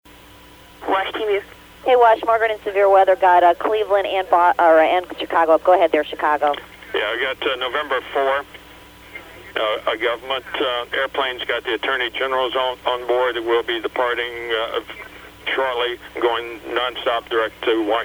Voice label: Teleconference; ZDC ZOB Herndon Chicago; September 11, 2001; United Airlines flight 93